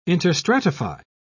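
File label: "interstratify", British English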